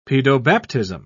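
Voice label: "pedobaptism", English, British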